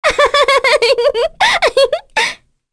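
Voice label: Vox Happy, voices heroes English; kingsraid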